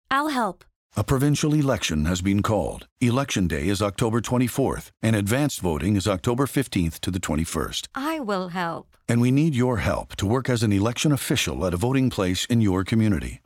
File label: Radio Ad Recruitment